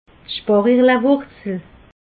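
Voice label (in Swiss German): "sparichelwurzel", Alsatian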